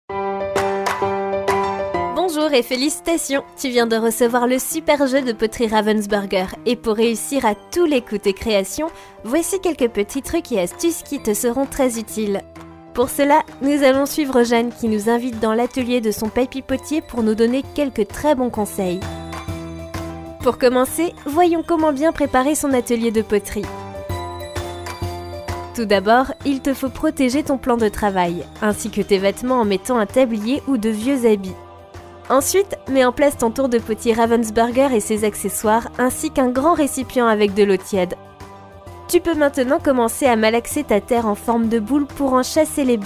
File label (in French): Voix Off; Ravensburger; Deviens un vrai potier